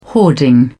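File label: "hoarding", British English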